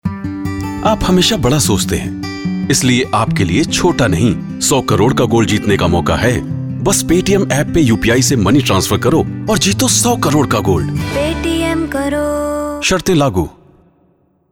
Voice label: Male Spot; Paytm